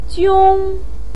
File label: "jiong1", Chinese pinyin voice